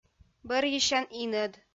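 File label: "бӧрйысян инӧд", Komi, female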